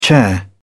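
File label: "chair", British English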